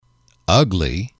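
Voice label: "ugly", English, male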